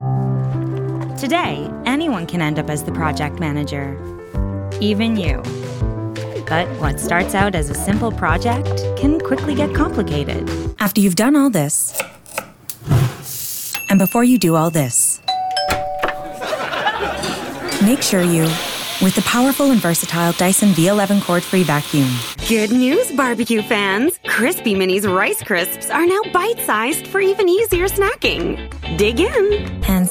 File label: english canadian commercial; Canada